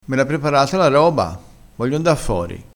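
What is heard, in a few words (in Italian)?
"roba", vocabolario dialettale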